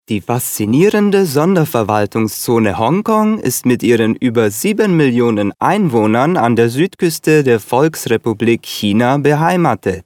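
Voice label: German male corporate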